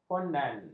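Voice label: "fondan", Malay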